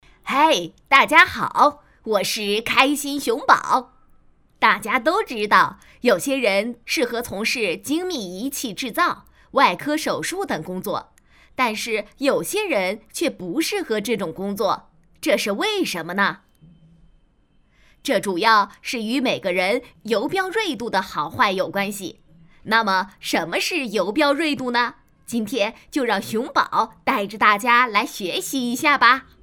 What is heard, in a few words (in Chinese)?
动画 童声 男童; 开心熊宝